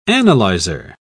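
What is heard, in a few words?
"analyzer", British English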